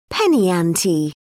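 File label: "penny ante", English, British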